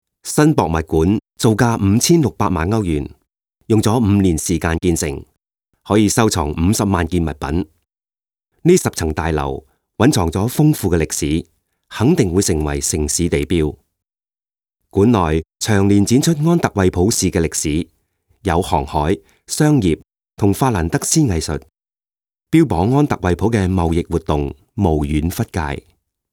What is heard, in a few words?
CANTONESE